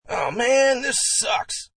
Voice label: Hippie, Cartoony male non-intelligeable grumbling complaint, Walla style; Cartoon Voices